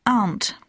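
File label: "aunt", English; poitiers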